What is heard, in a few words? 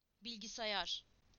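"computer", Turkish; PictureDictionary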